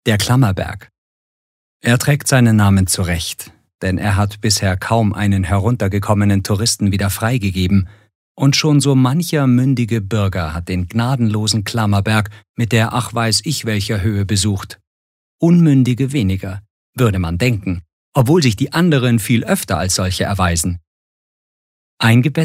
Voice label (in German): Kommentar Neutral